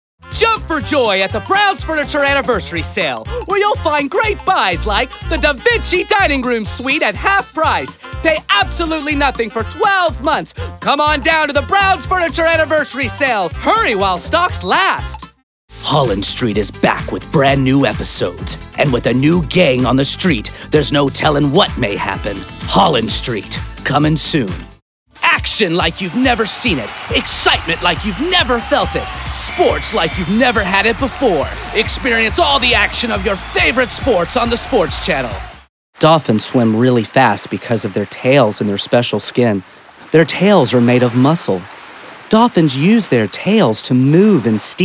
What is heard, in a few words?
english male